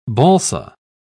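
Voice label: "balsa", British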